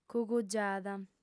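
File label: "cugugiada", female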